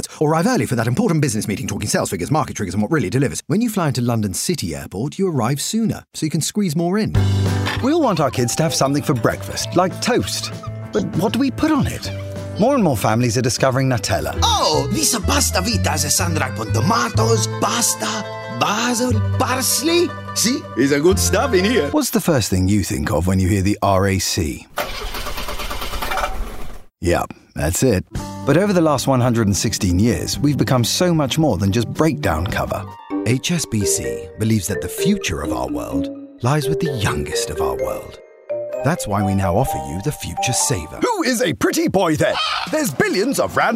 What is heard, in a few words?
British